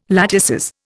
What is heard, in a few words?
"latices", Indian